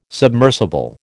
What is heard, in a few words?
"submersible", American